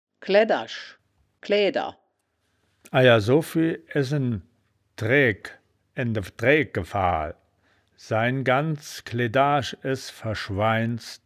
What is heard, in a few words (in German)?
"kledasch klaeaeda", wittlich; das wittlicher woerterbuch; woerterbuch vertonung